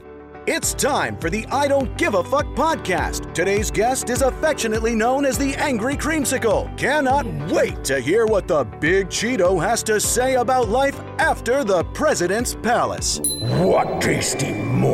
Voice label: Animation